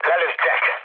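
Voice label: Hungarian aircraft destroyed mood low, speech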